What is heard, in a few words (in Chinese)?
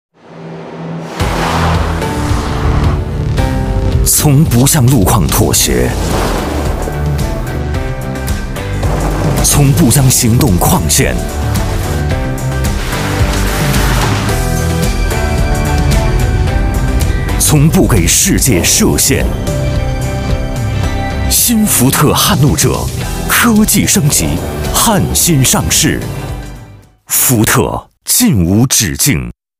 男 广告, 国语男声配音